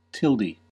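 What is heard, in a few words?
"tilde", US English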